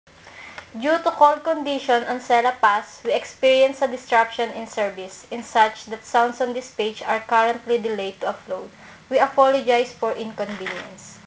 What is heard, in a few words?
announcement; Dirang; India